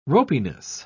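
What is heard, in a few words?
"ropiness", British English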